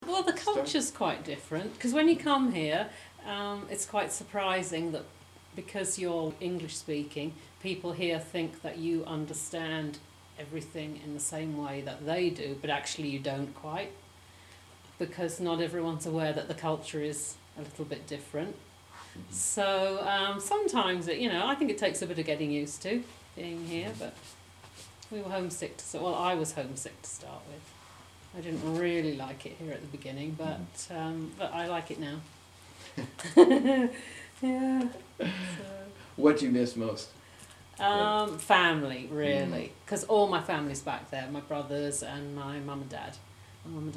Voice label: Surrey natural conversation; england; dialect samples